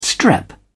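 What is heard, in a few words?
"strep", English, British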